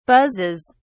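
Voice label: "buzzes", English, British English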